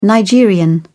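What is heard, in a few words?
"nigerian", British English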